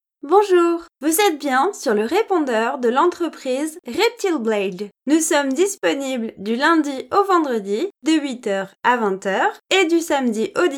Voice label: IVR